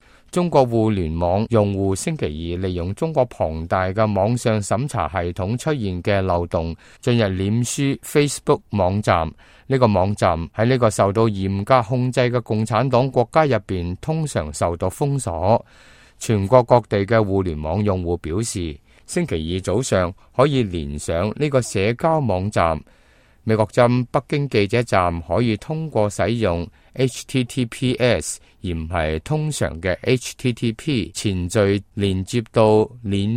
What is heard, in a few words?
cantonese, news